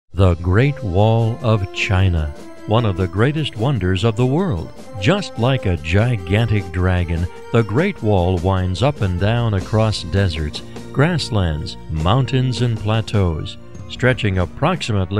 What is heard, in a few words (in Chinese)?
外籍男 广告; 万里长城